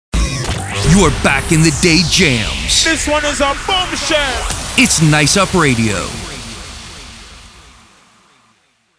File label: Radio Sweeper; Cansa Trinity Voiceovers